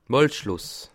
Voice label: "malschloss", alsace

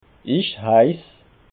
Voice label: "ich heiss", alsace

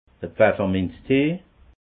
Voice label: "pfefferminztee", alsace

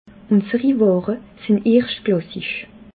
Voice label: "ware", alsace

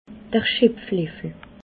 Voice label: "schepfleffel", alsace

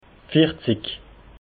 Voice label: "vierzig", alsace

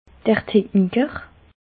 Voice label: "techniker", alsace

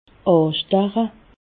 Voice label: "astache", alsace

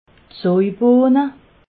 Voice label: "soibohne", alsace

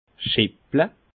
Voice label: "schepple", alsace

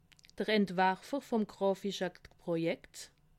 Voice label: "entwerfer", alsace

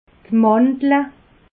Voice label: "mandle", alsace

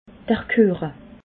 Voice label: "kueche", alsace

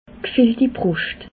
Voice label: "gfeltibruscht", alsace

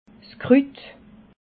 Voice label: "krut", alsace

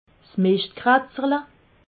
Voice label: "mischtkratzerle", Alsace

